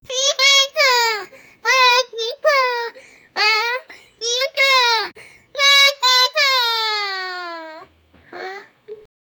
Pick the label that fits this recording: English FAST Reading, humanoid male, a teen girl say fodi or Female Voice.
a teen girl say fodi